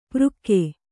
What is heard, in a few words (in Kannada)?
"ಪೃಕ್ಕೆ", Kannada